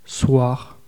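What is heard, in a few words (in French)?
"soir", French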